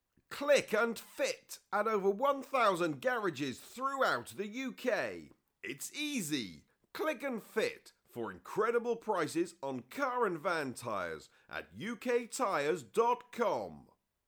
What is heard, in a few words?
radio advert example